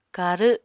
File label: "karw", Apatani